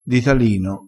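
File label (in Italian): "ditalino", vocabolario dialettale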